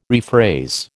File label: "rephrase", American